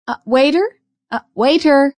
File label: Waiter, Female; Talking